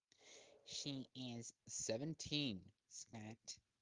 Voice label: voice message